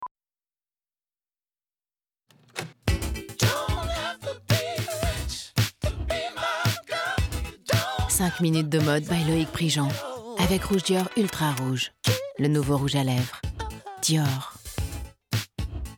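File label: female, French; BB Rouge Dior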